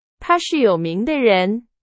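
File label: Chinese, example sentence; hsk2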